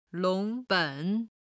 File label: "long2 ben3", Chinese